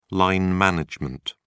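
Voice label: "line management", British English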